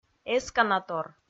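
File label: "эсканатор", Komi, female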